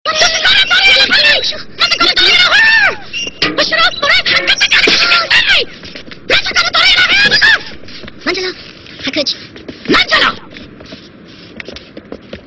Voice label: Quarrel; Zoozoo